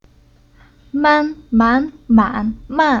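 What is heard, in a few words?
"Man", Chinese syllable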